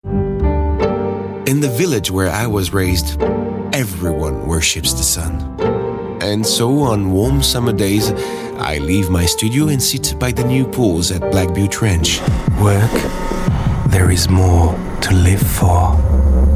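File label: French, male, accent English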